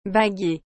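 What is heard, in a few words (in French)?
"baguier", French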